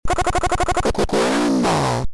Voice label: alien first words plus stutterin granma